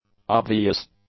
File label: "obvious", english; adjectives